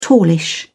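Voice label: "tallish", British English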